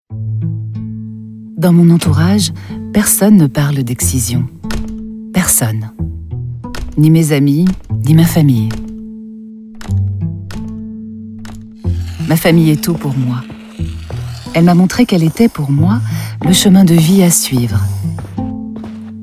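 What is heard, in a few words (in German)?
französische Sprecherin; french voice-over talent female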